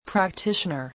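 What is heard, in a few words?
"practitioner", English word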